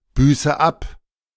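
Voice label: German "büße ab"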